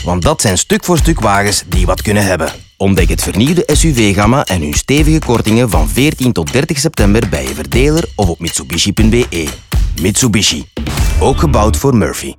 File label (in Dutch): radio Nl voetbal